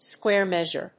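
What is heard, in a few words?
"square measure", English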